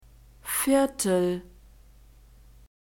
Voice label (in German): "viertel", German